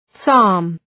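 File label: "psalm", English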